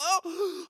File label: Hurt; Morty